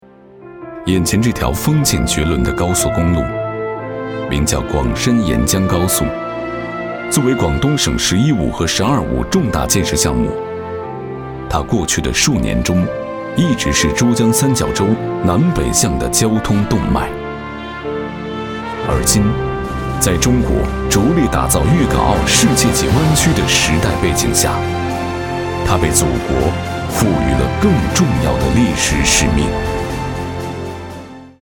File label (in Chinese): 专题 大气沉稳; 沿江高速